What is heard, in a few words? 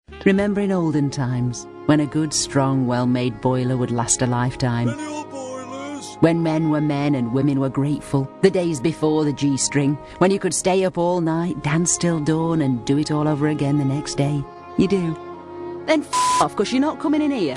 northern